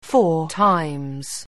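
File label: "four times", English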